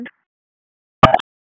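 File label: Police Northeast District Tactical 1, p25; Raleigh; wake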